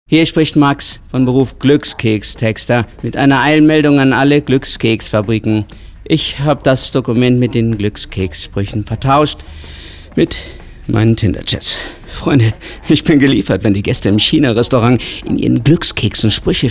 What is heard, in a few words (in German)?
Radio Wasserbett Werbung